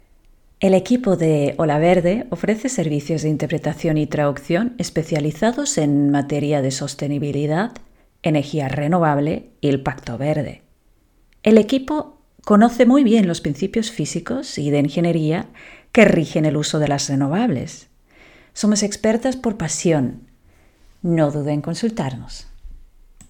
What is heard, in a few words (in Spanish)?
ES